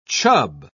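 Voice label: "chub", British English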